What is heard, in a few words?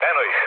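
Serbian enemy detected mood low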